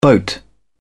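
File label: "boat", British English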